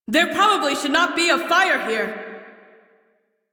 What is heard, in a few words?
Crew See Fire, Female; Railjack Crew; Cephalon Suda